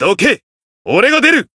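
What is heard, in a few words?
Voice variable chant, Japanese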